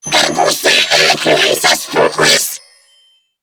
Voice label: Female, Grineer; New War; Narmer; Patrol